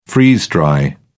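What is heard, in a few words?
"freeze-dry", British English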